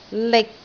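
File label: "lik6", Cantonese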